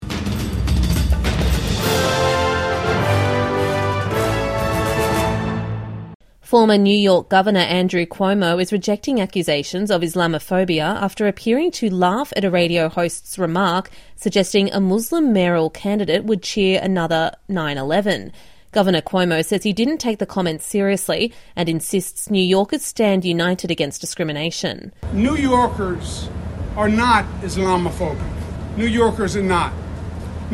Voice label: english; Former NY governor Andrew Cuomo rejects accusations of Islamophobia; SBS News